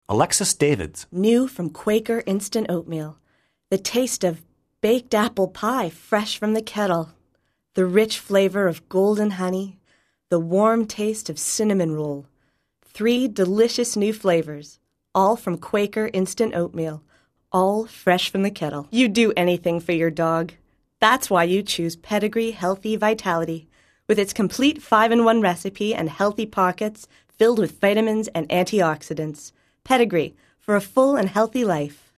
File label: commercial female